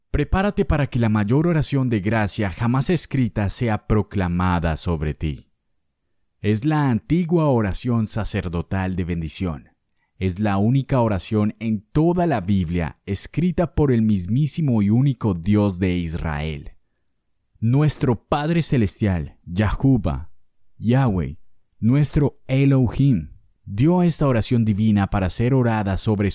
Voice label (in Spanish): Spanish (LA) Male